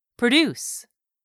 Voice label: "produce", english; 3000 words

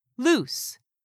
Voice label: "LOOSE", english; 3000 words

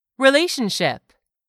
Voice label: "RELATIONSHIP", english; 3000 words

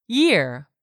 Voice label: "year", English; 3000 words

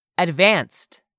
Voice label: "ADVANCED", english; 3000 words

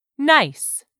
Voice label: "nice", English; 3000 words

